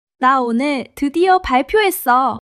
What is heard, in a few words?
joy